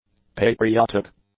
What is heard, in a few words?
"patriotic", English; adjectives